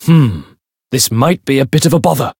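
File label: Voice encounter fear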